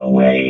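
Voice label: "away", robot voice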